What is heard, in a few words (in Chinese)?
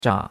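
"zha3" Chinese; Cours-de-Chinois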